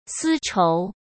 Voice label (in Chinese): "丝绸", Chinese; hsk